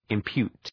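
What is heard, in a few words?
"impute", English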